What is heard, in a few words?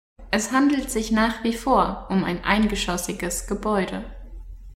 deutsch listening